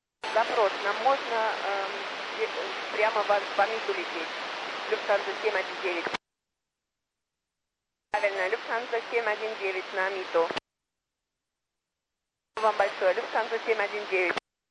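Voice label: dama, lady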